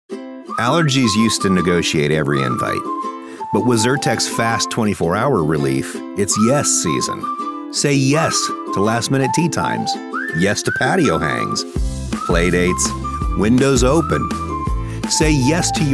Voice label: Commercial; Zyrtec